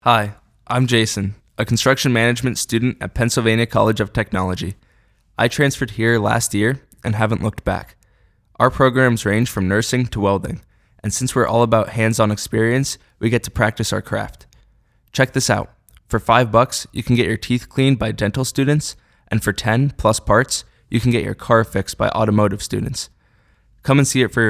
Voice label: Spotify Ad no music